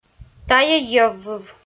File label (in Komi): "тайӧ йӧввыв", Komi